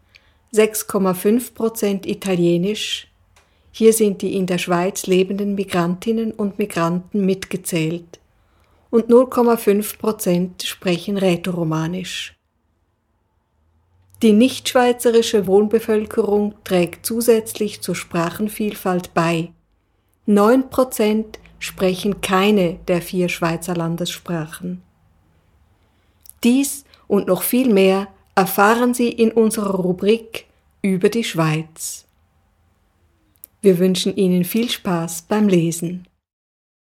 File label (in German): deutsch